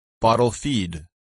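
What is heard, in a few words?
"bottle-feed", English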